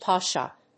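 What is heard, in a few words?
"potshot", English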